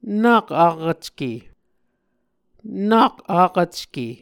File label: "nak akvcke", Muscogee